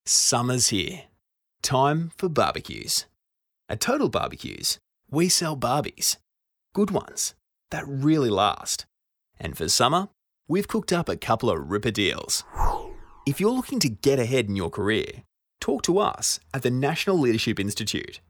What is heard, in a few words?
Natural